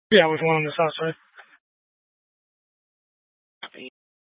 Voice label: Mesa PD Dispatch

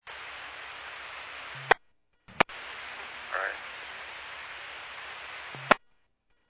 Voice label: Medevac, scanner; NativeAirAmb

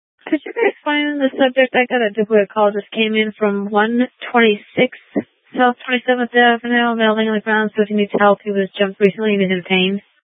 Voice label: Police Dispatch

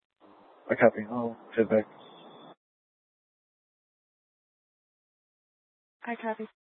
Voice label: Mesa PD Fiesta Dispatch

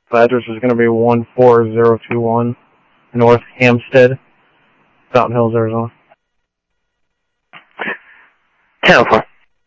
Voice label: scanner audio trunk

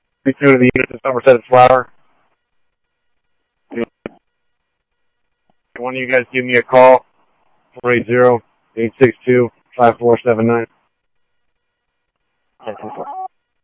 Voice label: Mesa Simulcast, MESA PD, scanner audio

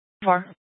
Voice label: Scottsdale Police, Simulcast

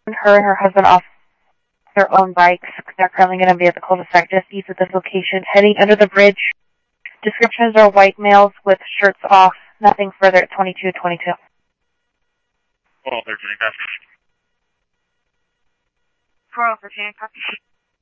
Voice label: Police, scanner audio trunk; H-Scottsdale

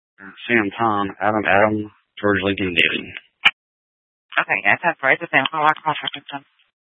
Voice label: Sheriffs Office, scanner

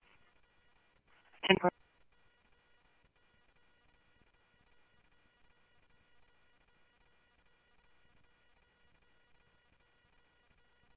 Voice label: Scottsdale Police, scanner audio

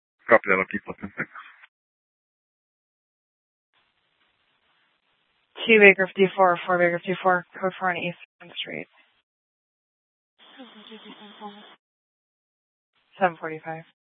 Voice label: Police Dispatch; TOPAZ Regional Wireless Cooperative (TRWC) - Mesa Simulcast; Mesa Police Department; 3 Red Mountain Dispatch

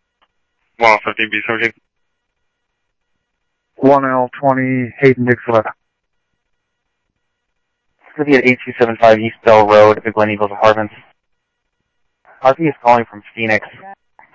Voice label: Scottsdale PD Scottsdale Police, scanner audio; SCTSDLE PD - PD2; ThompsonPeakHigh

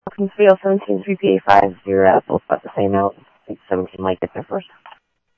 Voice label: Scottsdale Police, scanner audio; H-Scottsdale; SCTSDLE PD - PD2

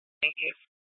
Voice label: Dispatch; Scottsdale

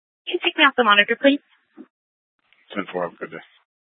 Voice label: PD Dispatch

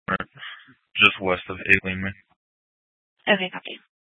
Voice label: Dispatch, Mesa PD; TOPAZ Regional Wireless Cooperative (TRWC) - Mesa Simulcast; 4 Superstition Dispatch

